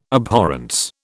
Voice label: "abhorrence", British